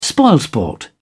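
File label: "spoilsport", British English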